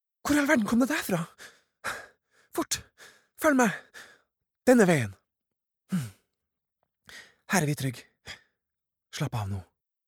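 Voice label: dub, Norwegian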